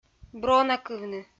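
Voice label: "бронакывны", Komi, female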